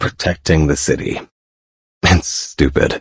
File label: monologue; xinalized; policestory